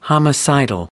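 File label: "homicidal", American English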